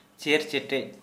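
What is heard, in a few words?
"lizard", Santali, male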